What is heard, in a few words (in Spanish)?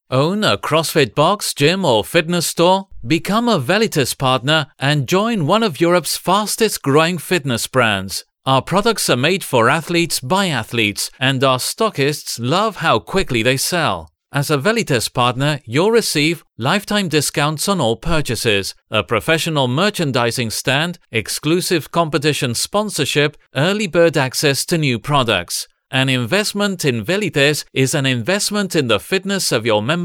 narración inglés UK